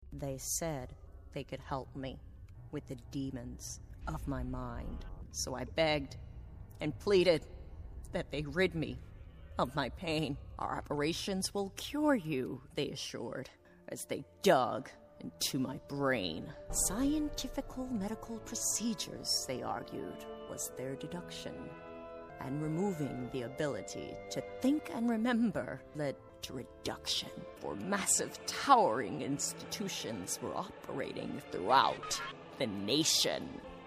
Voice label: English American movie trailers